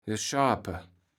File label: "geschaape", Kaevels Platt